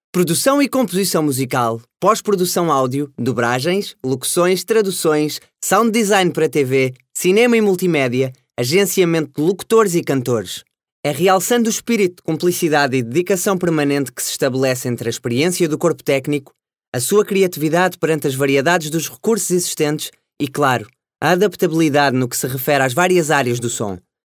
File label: locutor joven portugal portuguese voiceover